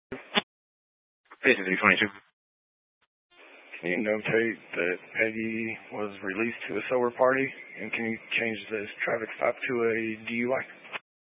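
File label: Sheriff Dispatch, scanner audio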